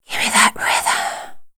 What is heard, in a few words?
WHISPER; Best Service; Voice Spectral II; WHISPERS